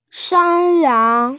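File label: "shan1yang2", Mandarin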